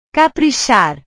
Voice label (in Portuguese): "caprichar", portugues